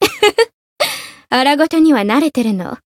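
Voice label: win, Japanese; kikyou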